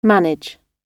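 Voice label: "manage", British English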